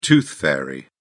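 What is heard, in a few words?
"tooth fairy", British English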